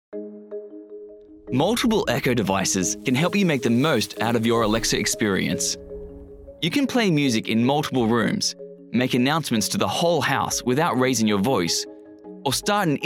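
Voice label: English Australian Male Training, accent of australia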